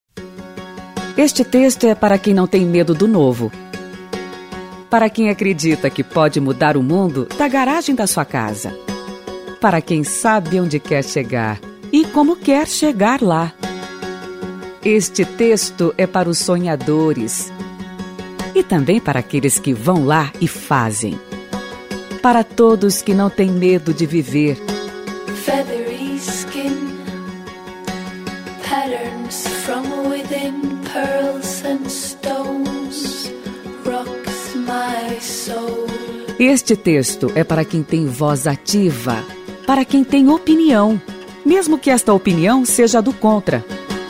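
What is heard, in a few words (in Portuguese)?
Feminino, Português - Brasileiro, Interpretado, Voz Padrão - Grave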